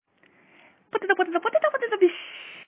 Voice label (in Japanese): 萌声ポテト